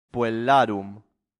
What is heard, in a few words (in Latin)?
"puellarum", latin; santillana